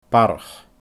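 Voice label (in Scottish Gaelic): "Barrach", Scottish Gaelic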